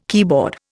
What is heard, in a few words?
"keyboard", Indian